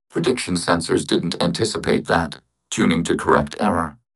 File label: upset; fertig classic games